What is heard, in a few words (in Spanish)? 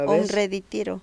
se derritió, Mexicano Guerrero; INALI